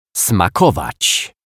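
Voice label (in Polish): "smakowac", Polish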